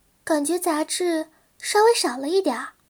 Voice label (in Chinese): 升级, battle cry; ycrpg; 南宫五月